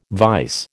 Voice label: "vise", American

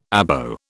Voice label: "Abo", British

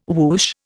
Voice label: "woosh", Indian

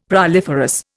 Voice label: "proliferous", Indian; Dictionary

